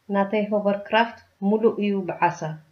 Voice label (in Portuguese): hovercraft, tigrinia